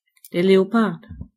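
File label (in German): "leopard", German; gcompris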